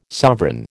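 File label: "sovereign", American English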